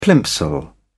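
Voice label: "plimsoll", British English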